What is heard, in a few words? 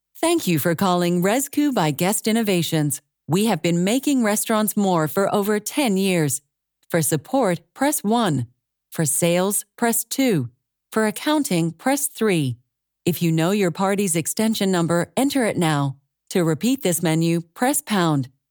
Phone Welcome; Rezku